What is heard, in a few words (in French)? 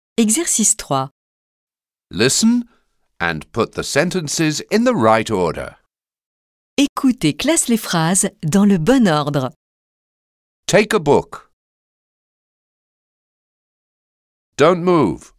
son anglais